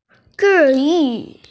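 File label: "zhiyu", Mandarin Chinese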